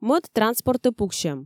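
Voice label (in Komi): мӧд транспортӧ пуксьӧм, Komi, female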